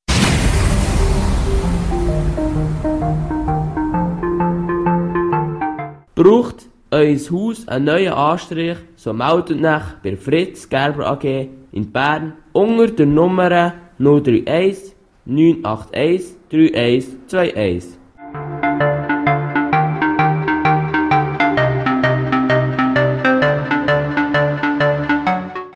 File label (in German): Werbejingle; Bolligen